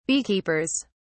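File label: "beekeepers", English, British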